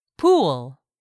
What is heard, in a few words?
"pool", English; 3000 words